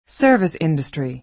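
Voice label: "service industry", American English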